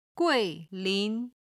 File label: "gui4lin2", chinese